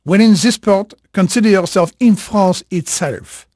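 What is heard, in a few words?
French male, voice; Pirates Of The Caribbean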